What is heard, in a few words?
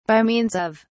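"by means of", English, British English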